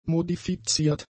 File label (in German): "modifiziert", German; adjectives